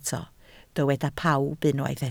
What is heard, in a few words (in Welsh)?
"pawb", Welsh